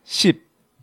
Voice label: "Sip", Korean